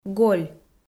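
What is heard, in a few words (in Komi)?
"голь", Komi-Zyrian, female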